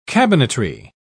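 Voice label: "cabinetry", British English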